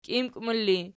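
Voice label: "kimkmul" ergative, Archi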